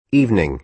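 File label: "evening", American English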